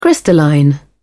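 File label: "crystalline", British English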